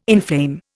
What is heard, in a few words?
"inflame", Indian